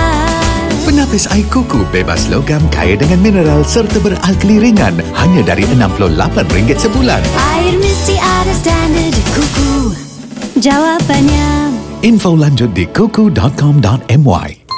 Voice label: Cuckoo Jingle

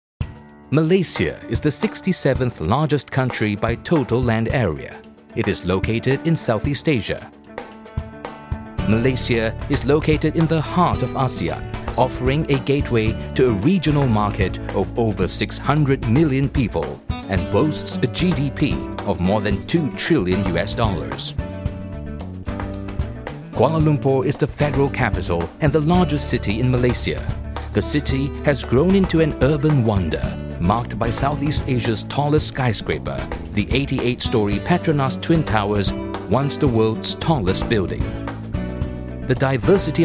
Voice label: Corporate

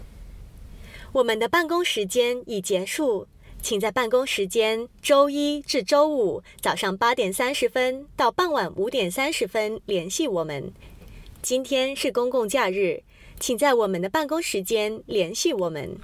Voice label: IVR

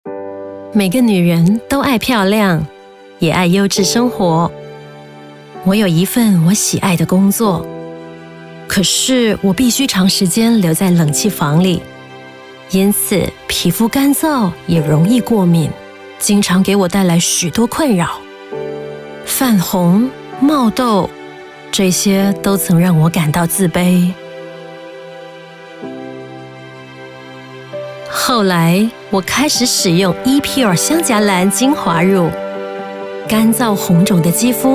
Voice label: corporate video; epure

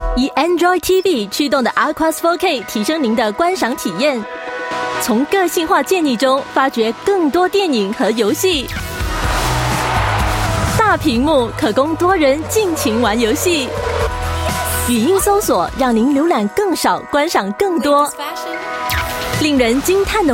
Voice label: VO Corporate

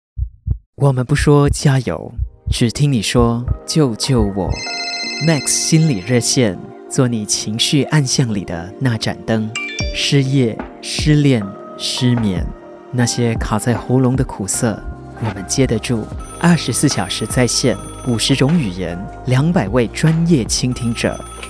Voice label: Mandarin - Hopeful, Caring, Empathetic, Emotional

